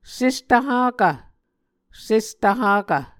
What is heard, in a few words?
"sestvhakv", Muscogee